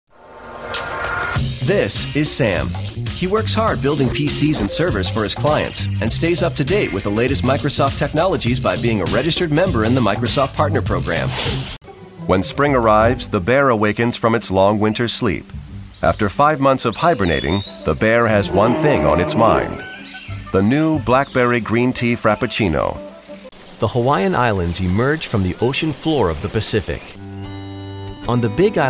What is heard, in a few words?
Narration